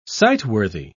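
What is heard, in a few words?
"sightworthy", British English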